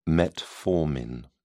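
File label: "metformin", English, British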